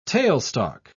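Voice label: "tailstock", British English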